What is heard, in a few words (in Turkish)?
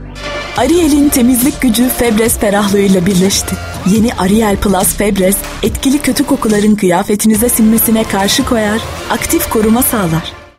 siicak samimi, seslendirme